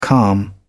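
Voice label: "calm", British English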